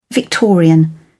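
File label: "victorian", British English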